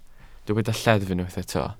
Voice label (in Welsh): "lleddf", Welsh